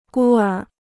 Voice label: "孤儿", Chinese

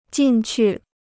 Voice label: "进去", Chinese

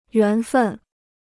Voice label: "缘分", Chinese

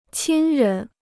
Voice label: "亲人", Chinese

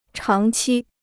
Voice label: "长期", Chinese

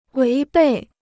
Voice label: "违背", Chinese

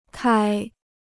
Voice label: "开", Chinese

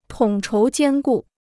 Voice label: "统筹兼顾", Chinese

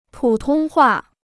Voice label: "普通话", Chinese

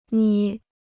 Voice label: "捏", Chinese

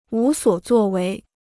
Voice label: "无所作为", Chinese

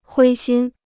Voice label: "灰心", Chinese

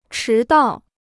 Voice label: "迟到", Chinese

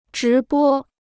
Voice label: "直播", Chinese

